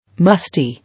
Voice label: "Musty", English